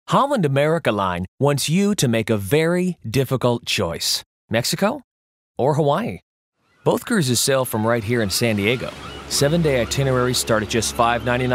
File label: Radio; Holland America Difficult Choice